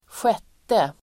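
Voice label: "sjätte", Swedish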